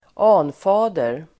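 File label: "anfader", Swedish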